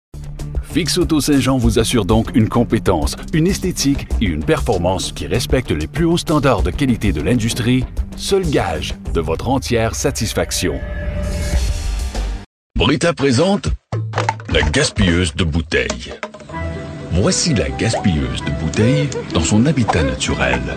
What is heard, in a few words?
Male French Canadian